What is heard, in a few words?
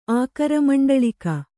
"ಆಕರಮಂಡಳಿಕ", Kannada